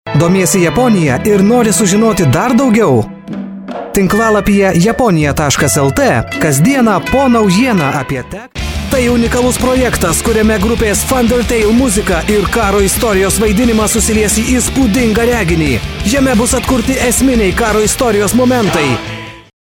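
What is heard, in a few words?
Lithuanian commercial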